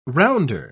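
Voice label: "rounder", British English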